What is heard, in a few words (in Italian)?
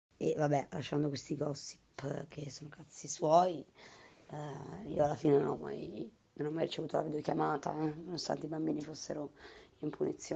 AUDIO che critica Davide